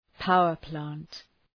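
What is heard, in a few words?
"power plant", English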